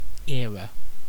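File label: "era", British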